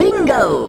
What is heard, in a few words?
"bingo", cartoon; Voice; expletives